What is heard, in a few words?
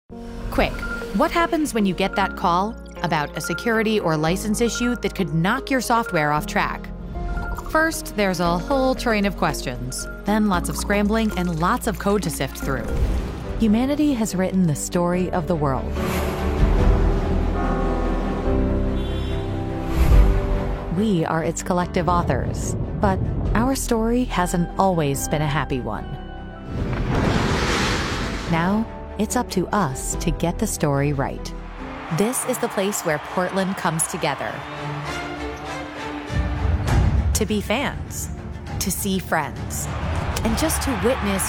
english american corporate videos